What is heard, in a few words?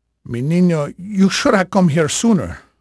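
Spanish male, voice; Pirates Of The Caribbean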